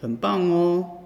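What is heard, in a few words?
awesome, Finnish; gcompris-data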